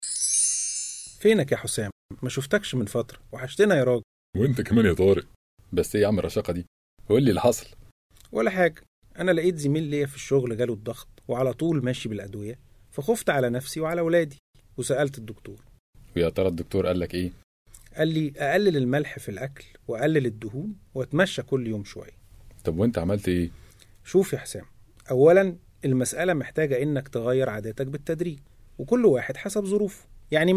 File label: World Health Day Radio Spot Effect Men